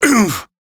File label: Pain, Male, en; Railjack Crew; Arbiters Hexis